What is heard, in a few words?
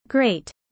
fast